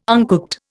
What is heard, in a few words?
"uncooked", Indian